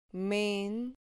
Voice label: "men", Spanish syllable